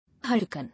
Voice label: "Hurricane", English

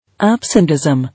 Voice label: "absenteism", English

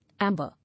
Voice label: "Amber", English

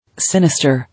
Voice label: "Sinister", English

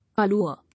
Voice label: "Allure", English

